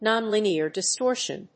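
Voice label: "nonlinear distortion", English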